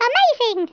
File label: "amazing", English speech